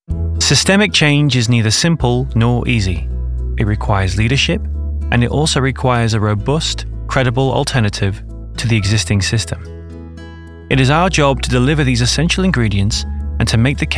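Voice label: corporate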